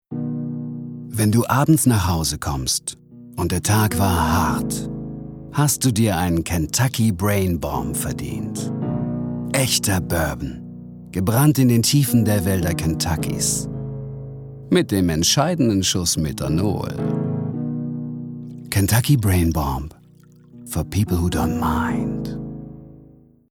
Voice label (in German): Werbung